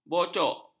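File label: "bocok", Malay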